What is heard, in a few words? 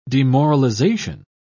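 "demoralisation", British English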